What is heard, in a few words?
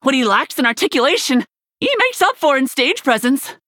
Rising Star Warrior Nonbinary Night Elf